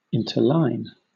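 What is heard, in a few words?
"interline", British English; pronunciations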